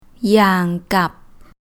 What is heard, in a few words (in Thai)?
"อย่างกับ", Thai